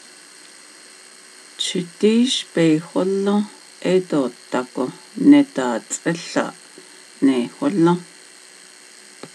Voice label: Does s he have a car or do you have a car, navajo questions